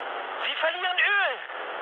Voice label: damaged oil, mood high, speech ge; War Thunder